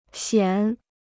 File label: "显", Chinese